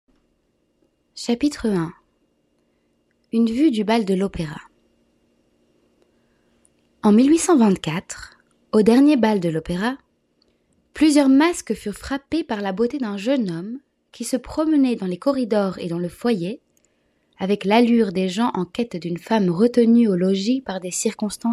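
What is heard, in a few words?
female voice, French accent, Georgian; GoLocalise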